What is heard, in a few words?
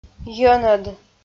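"йӧнӧд", Komi, female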